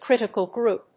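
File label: "critical group", English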